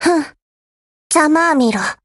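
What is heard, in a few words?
win, Japanese; HK416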